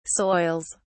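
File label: "soils", English, British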